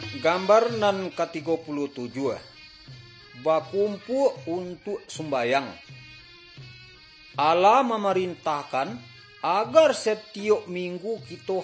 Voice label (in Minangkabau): good news minangkabau; bible